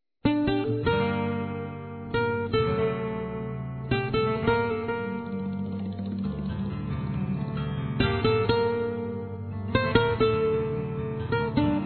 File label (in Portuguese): Aniversário Avó Masculino